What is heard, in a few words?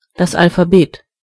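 alphabet, German voices words; gcompris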